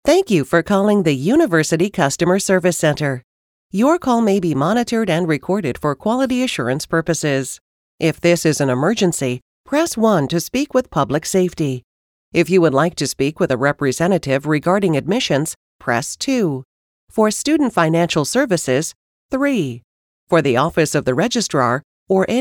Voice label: us english ivr